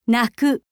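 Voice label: "naku", Japanese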